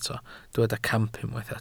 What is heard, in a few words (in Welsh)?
"camp", Welsh